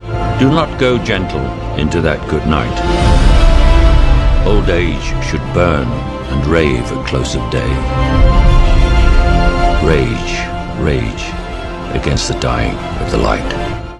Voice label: poem

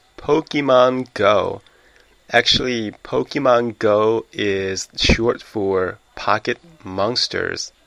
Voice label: "pokemon go", American English pronunciation

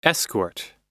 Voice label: "escort", American pronunciation, noun

